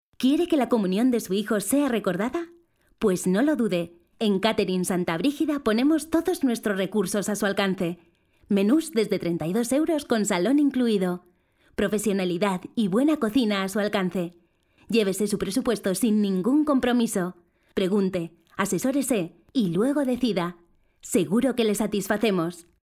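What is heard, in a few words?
locutora spanish voiceover, female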